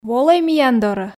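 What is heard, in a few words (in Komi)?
волӧй миян дорӧ, Komi, female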